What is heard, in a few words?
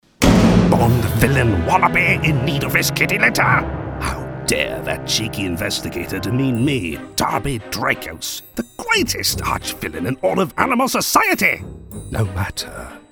Animation, English Voice Talent; Motley Vox; English voice character personas